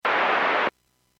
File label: Airports 122.7, Radio; KSTE